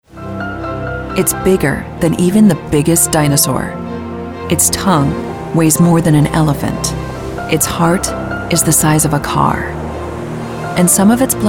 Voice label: narration